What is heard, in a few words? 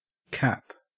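"cap", Australian English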